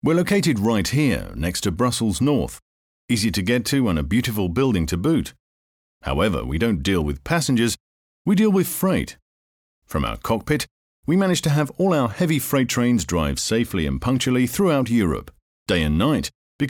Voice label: corporate; B Logistics